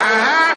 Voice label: "uh huh", cartoon; ooh ahh; uh um